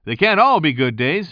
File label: commentator